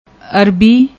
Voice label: "arbi", Punjabi